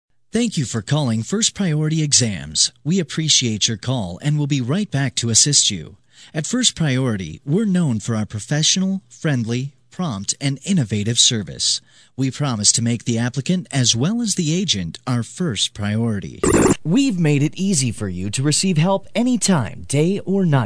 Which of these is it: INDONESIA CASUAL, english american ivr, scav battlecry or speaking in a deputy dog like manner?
english american ivr